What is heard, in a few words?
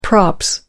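"props", British English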